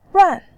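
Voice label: "ran", Mandarin Chinese, fourth tone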